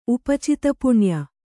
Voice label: "ಉಪಚಿತಪುಣ್ಯ", kannada